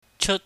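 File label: "cheut", Cantonese, high tone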